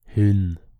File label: "Hun", Norwegian